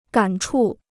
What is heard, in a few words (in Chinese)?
"感触", Chinese